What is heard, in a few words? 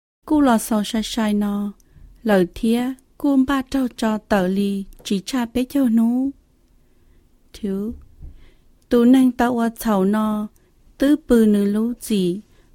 Hmong